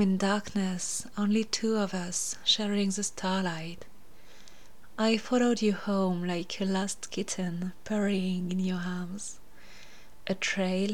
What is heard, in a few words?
Crashing waves, erotic poetry; I love waves as I ride your tongue; F4M; script by uiwillinstruct2